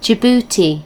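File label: "djibouti", British English; gcompris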